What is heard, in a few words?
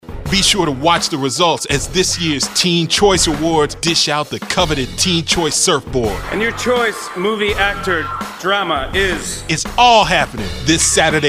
Promo Hard